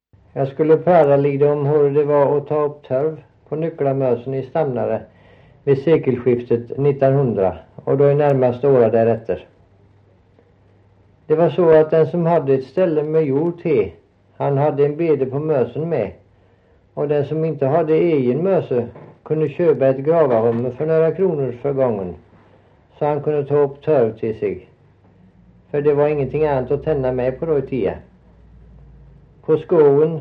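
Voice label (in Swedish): Stamnared Halland, dialekter; Folkminnen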